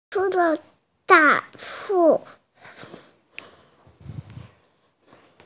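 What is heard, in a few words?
"cu1", Mandarin Chinese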